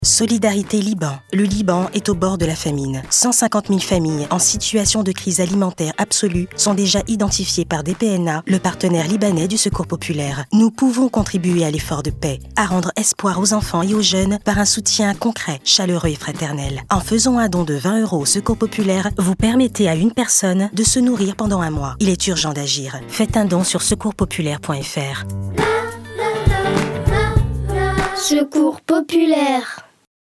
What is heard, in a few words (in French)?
ton de sensibilisation Spot radio; SOUTIEN LIBAN Secours Populaire